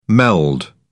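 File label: "meld", British English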